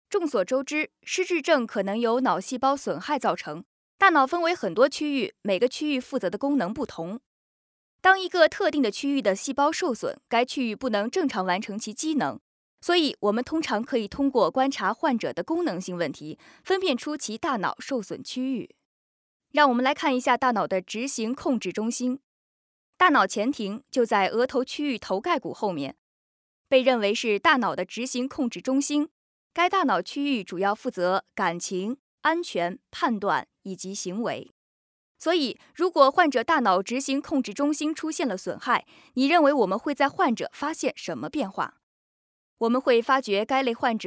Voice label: Chinese Mandarin Female